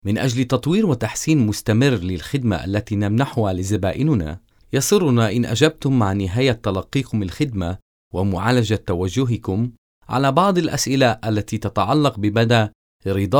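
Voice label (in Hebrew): Arabic, קריינות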